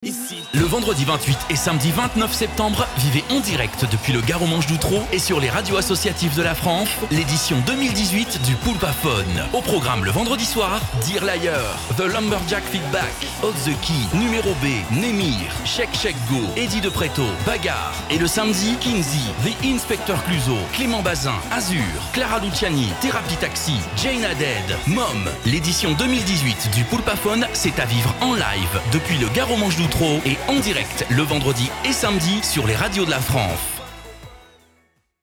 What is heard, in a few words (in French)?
Spot; General Poulpaphone 2018